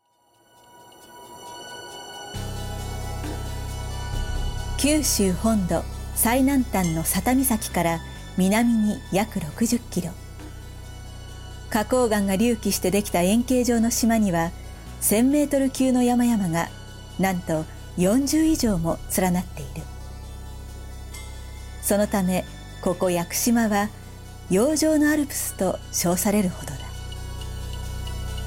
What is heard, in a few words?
narration